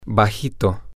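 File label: short, Spanish, male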